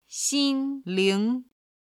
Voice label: "xinling", Chinese